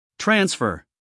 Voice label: "transfer", us male; 1000 hours

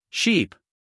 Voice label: "sheep" en us male; everyone-can-use-english

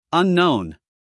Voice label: "unknown", us male

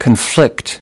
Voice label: "conflict" verb; everyone-can-use-english

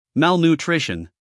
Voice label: "malnutrition", US English, male; everyone-can-use-english

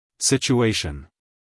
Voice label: "situation" us male; everyone-can-use-english

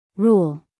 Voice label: "rule", US English, female; everyone-can-use-english

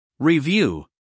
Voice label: "review", US male

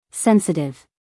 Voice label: "sensitive", US female; 1000 hours

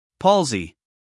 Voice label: "palsy", US male

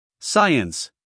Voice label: "science", US male

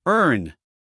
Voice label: "earn", us male

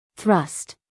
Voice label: "thrust", us female

